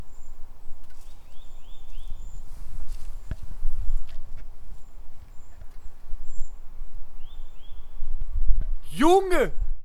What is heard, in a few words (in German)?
Junge